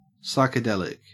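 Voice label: "psychedelic", Australian English; pronunciations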